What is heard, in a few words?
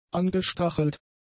"angestachelt", German; adjectives